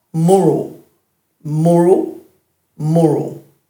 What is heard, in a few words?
"muru", Dhurga